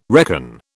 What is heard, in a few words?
"reckon", British